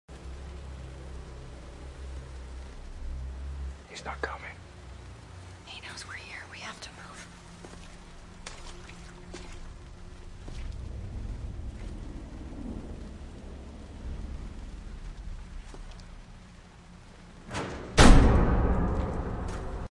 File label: cinematic dialogue rain alley